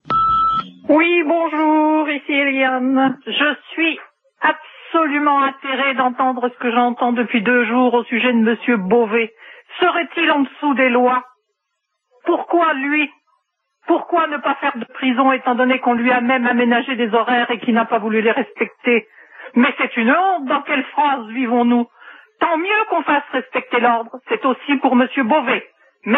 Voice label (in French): en prison anti repondeur